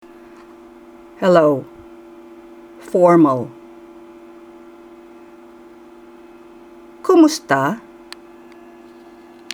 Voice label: Tagalog Hello formal; Greetings

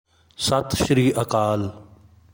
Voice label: Punjabi Good evening; Greetings

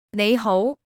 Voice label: Cantonese Hello formal; Greetings

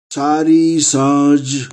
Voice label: Gujarati Good evening; Greetings

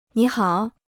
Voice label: Mandarin Hello; Greetings

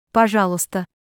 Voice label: Russian Please you are welcome; Greetings

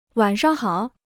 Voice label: Mandarin Good evening; Greetings

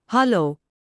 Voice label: Dutch Hello; Greetings

